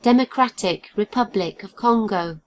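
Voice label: "democratic republic of congo", British English; gcompris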